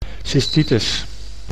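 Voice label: "cystitis", Dutch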